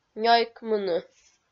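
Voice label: "нёйкмыны", Komi, female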